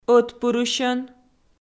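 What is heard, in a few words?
"ӧтпырысьӧн", Komi, female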